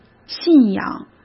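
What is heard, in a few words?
"信仰", Mandarin Chinese; chinese-flashcards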